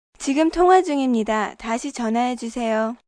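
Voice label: Line Busy Korean, Telephone operator, Korean, female; VoiceBox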